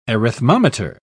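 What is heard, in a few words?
"arithmometer", British English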